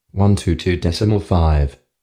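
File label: "122 500", Australian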